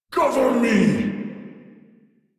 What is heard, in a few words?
Cover Me, Battle Barks